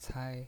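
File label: "cai", Mandarin Chinese, first tone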